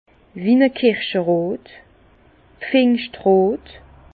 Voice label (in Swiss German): "pfingschtros", Bas Rhin; vin; pivoine